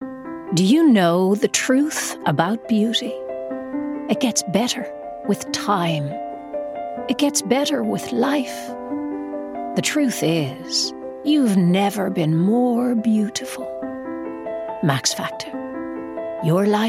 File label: Commercial